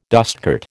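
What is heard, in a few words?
"dustcart", American English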